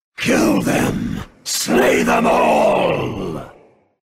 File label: order, grunt sounds